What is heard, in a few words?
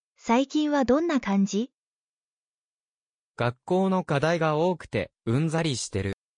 anime, Natural Japanese Conversations